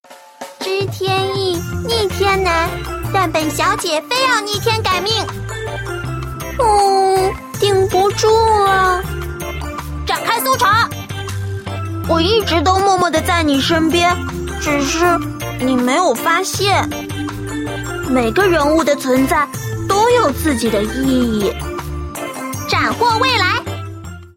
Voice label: luoli zhengtai

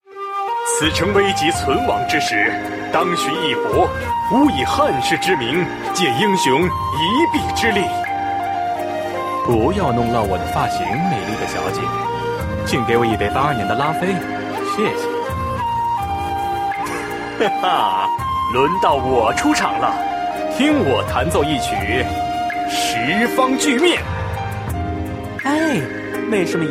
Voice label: qingnian

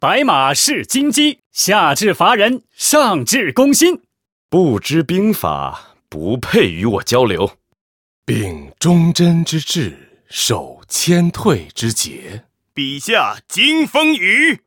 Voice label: 男

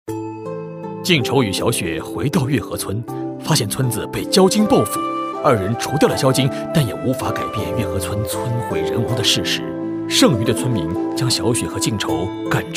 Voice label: 男生 旁白

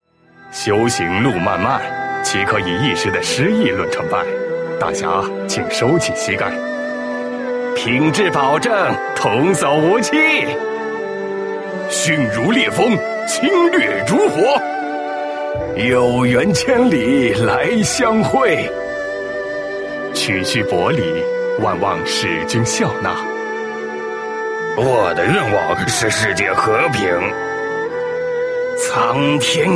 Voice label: zhonglaonian

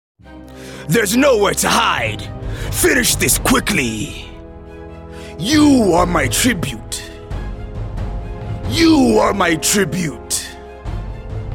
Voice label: 壮汉 英语 男

